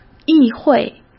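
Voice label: "议会", Mandarin Chinese; hsk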